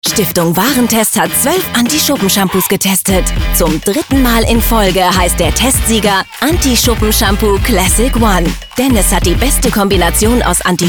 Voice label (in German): Shampoo Werbung familientauglich Stimmprobe; Werbe-Demo: familientauglich; Commercial (Werbung); Shampoo Classic One